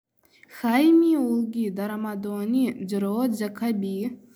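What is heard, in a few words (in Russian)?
нанайский предложение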